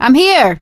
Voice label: scenario join, survivor voice